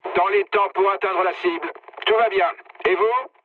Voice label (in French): chat, speech fr